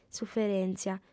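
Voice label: "suferèntzia", female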